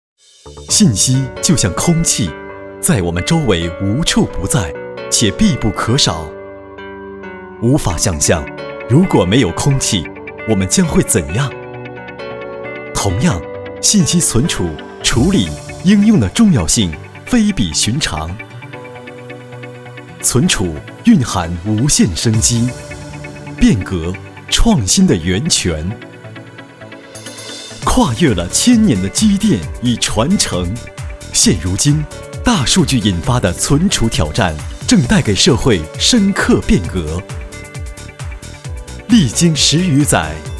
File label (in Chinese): 云计算, 国语男声配音, 浑厚; 专题